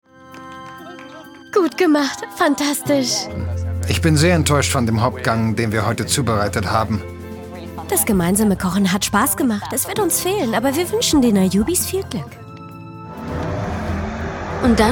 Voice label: German Voice Over